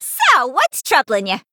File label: female goblin, Play